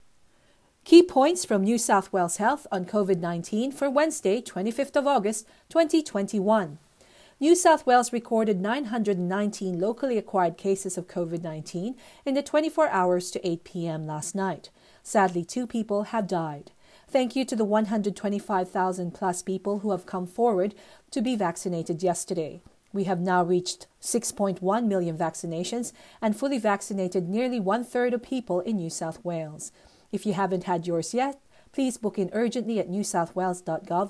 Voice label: english